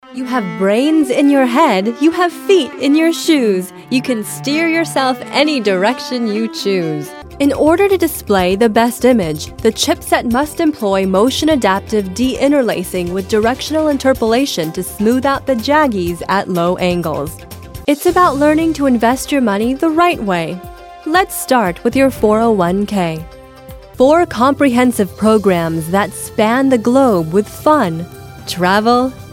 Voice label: narration